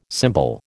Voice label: "symbol", American